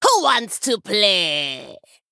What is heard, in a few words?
Female Troll Play